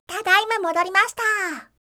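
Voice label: "tadaima modorimashita", Japanese; gaisyutsu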